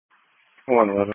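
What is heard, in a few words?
Police Patrol (Dispatch), Audio Call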